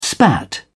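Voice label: "spat", British English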